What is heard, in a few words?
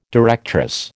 "directress", American